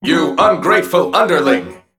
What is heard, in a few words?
Male Mech Attack